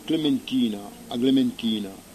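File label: "clementina", Corsican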